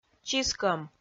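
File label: "чискӧм", Komi, female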